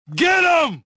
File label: anger; XBOX; lando